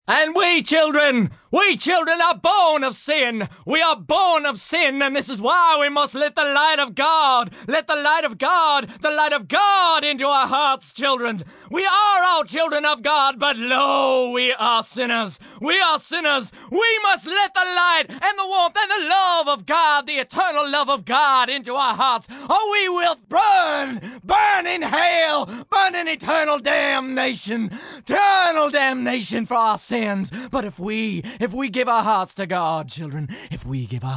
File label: Preacher Southern, Sermon, Priest from the south, religion, preaching (human voice); Church Sound Effects; Part of Churches (Sound Effects:Background